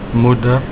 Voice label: mother, Afrikaans